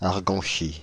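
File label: "Arganchy", French, Paris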